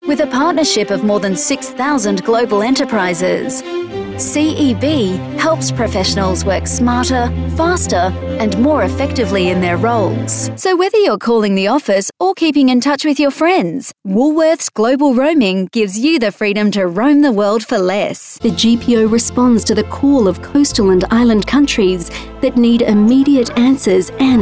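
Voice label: English Australian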